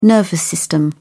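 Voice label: "nervous system", British English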